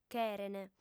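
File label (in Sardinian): "cherent", female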